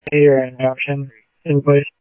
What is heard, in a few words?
Tempe Police, scanner